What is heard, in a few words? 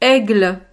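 "aigle", French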